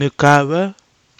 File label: "nɨkava", Kwamera; Piper methysticum